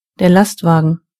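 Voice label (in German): "truck", German; gcompris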